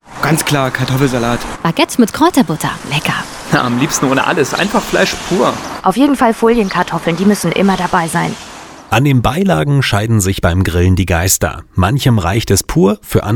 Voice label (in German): Beitrag Grillbeilagen